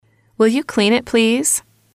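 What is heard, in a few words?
will you clean it please, English, female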